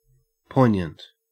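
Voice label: "poignant", Australian English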